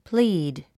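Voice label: "plead", English word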